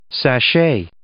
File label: "sashay", English